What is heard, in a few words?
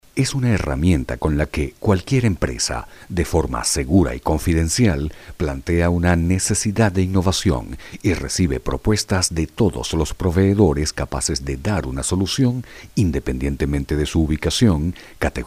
locutor venezolano venezuelan voiceover; Venezuelan male voice over